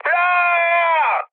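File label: Italian damaged pilot mood high, speech; War Thunder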